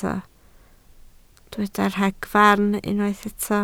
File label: "ragfarn", Welsh